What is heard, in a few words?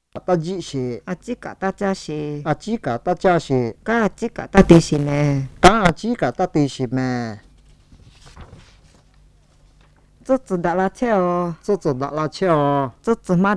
Black Lahu